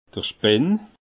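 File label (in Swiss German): "spinn", Alsatian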